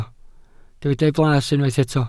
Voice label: "blas", welsh

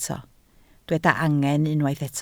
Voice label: "angen", Welsh

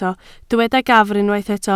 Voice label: "gafr", Welsh

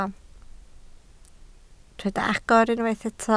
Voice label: "agor", Welsh

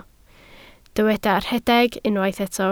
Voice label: "rhedeg", Welsh

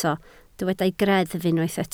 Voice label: "greddf", Welsh

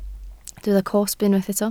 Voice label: "cosb", Welsh

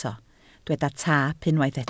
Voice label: "taap", Welsh

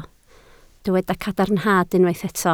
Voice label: "cadarnhad", Welsh

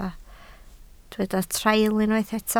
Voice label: "traul", Welsh

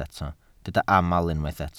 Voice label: "aml", Welsh

